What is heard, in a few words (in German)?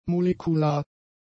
"molekular", German; adjectives